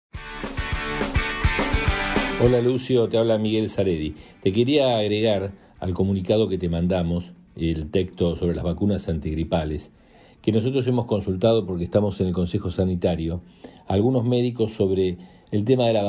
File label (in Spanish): radio; radio latina sobre la campaña de vacunación antigripal